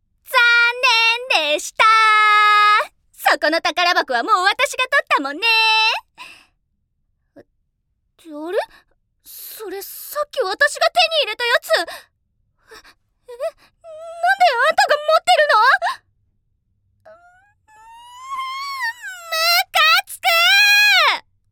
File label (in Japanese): 生意気な女の子